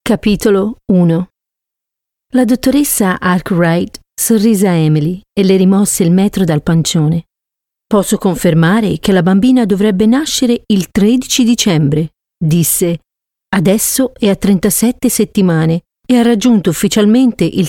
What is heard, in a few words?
italian voice over female audiobook